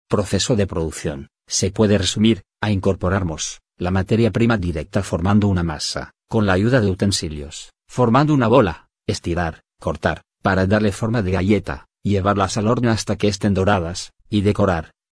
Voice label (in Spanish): voz del narrador